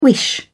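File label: "wish", English, British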